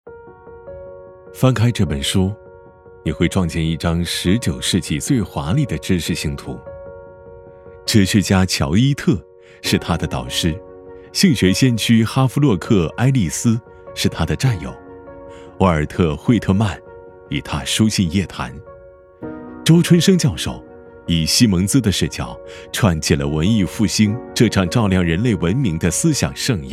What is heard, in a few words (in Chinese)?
男 纪录 轻柔自然; 时空对话